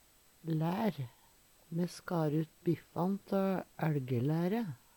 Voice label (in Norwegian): laer, Numedalsmål; Substantiv inkjekjønn; Veggli